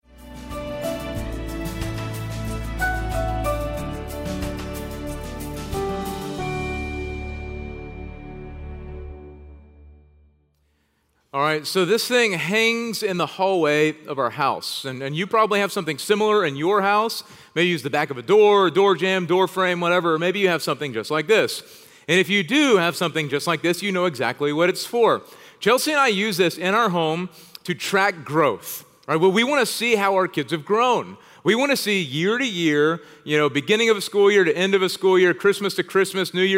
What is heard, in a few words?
Sermon English